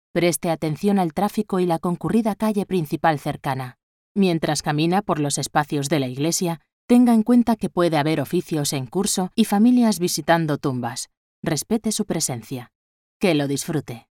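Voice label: Spanish, female, audioguide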